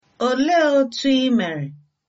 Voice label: how are you, igbo